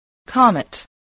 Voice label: "comet", voice

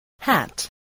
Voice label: "hat", voice

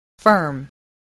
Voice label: "firm", spelling

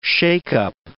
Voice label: "shake-up", English

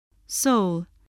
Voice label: "sole", English voice

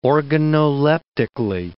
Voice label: "organoleptically", English

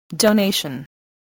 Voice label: "donation", spelling

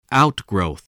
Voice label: "outgrowth", English voice

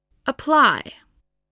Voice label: "apply", English

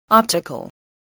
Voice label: "optical", English voice

